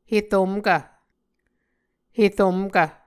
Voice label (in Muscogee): "heromkv", Muscogee